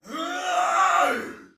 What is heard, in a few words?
Battle Cry Soldier Male; Dialog; Barks; Sinecure